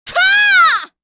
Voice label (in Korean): Vox Casting, kr; Seria; kingsraid; voices heroes